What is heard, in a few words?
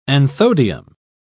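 "anthodium", British English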